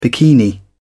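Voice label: "bikini", British English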